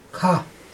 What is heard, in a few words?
"five", Chug